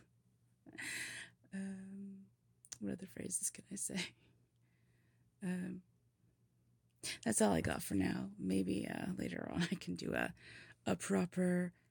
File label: West country ish